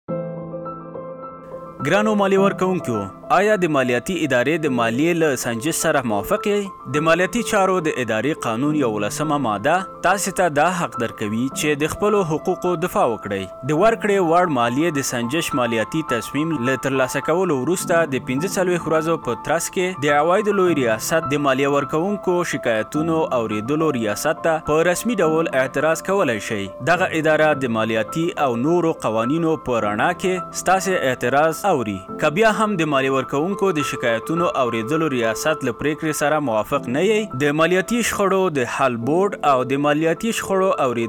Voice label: Pashto; ARD